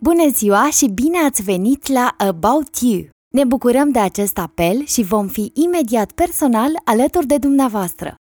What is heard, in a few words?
IVR; About You; romanian